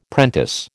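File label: "prentice", American English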